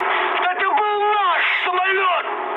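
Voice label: player killed ally, mood high, Russian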